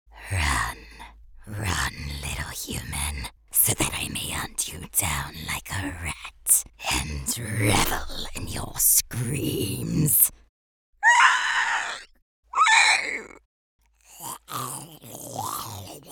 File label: Creature